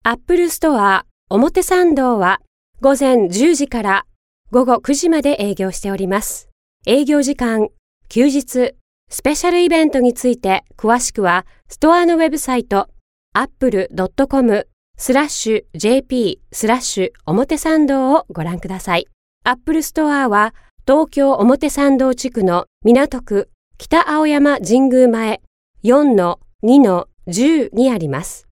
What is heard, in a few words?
Japanese Female